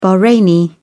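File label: "bahraini", British English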